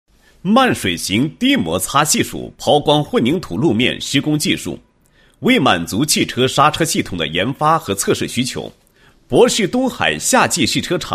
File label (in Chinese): 男, 工程解说; 抛光混凝土路解说词